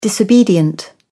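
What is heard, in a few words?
"disobedient", English, British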